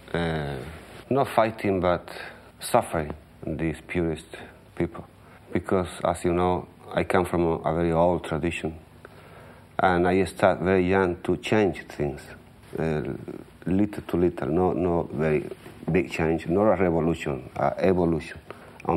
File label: Interview excerpt Flamenco; Paco Lucia